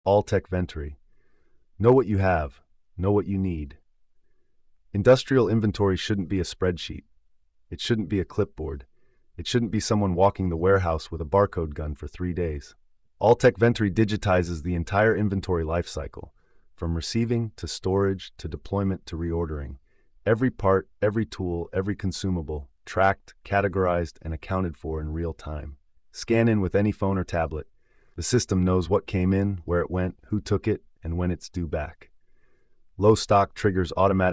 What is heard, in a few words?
narration